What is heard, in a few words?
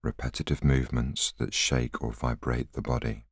voiceover